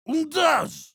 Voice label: Heavy meleeing, de

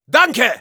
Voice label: Soldier thanks, de; Danke!

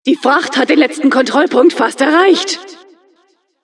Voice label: Announcer cart attacker final warning, de; Die Fracht hat den letzten Kontrollpunkt fast erreicht!; Reaktionen der Administratorin

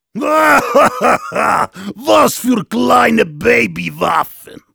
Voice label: Heavy taunts, de; [Lacht] Was für kleine Baby-Waffen!

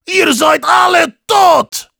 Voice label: Heavy taunts, de

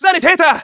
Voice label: Scout medic, de; "SANITÄTER!"

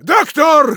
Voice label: Spy medic, de; "Doktor!"; Spy Sprachkommandos

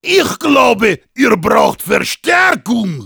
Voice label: taunts, de

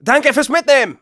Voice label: Scout thanks for the teleporter, de

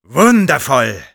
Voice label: Sniper cheers, de; "Wundervoll!"

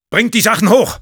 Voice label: Sniper move up, de; "Bringt die Sachen hoch!"

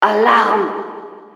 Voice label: Announcer alert, de

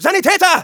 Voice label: Scout medic, de; Sanitäter!

